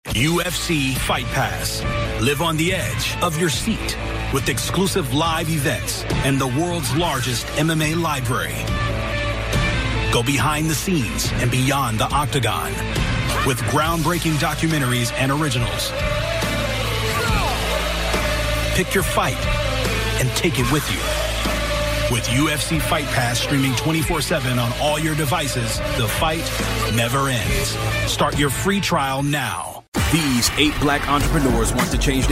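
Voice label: English US General; GoLocalise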